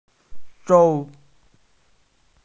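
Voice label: "sou1", Mandarin Chinese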